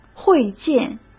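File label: "会见", Mandarin; chinese flashcards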